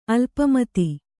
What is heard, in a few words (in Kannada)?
"ಅಲ್ಪಮತಿ", Kannada